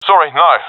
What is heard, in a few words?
negative, professional; Counter Strike Model Pack; CS:GO